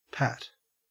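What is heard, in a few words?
"pat", Australian English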